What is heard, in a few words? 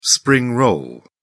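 "spring roll", English, British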